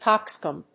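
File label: "coxcomb", English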